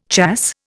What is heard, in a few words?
"chasse", Indian